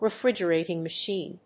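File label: "refrigerating machine", English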